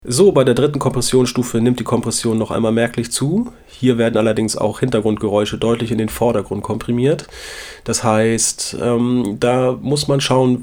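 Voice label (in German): stimme kompr; apogee hypemic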